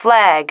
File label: "flag", female